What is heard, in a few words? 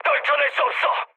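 Korean aircraft damaged mood medium; War Thunder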